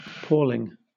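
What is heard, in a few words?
"palling", British English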